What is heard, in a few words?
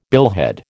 "billhead", American